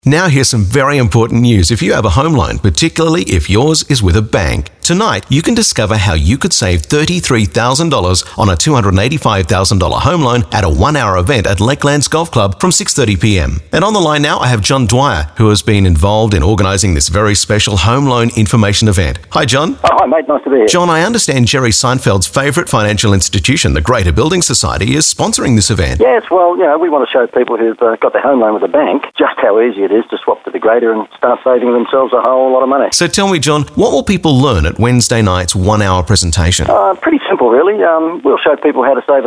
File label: Radio ad; Greater Home Loan Seninar